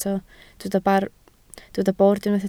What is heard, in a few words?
"bord", Welsh